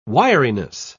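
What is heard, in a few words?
"wiriness", English, British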